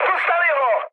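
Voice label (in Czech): Czech aircraft destroyed, mood medium; speech; War Thunder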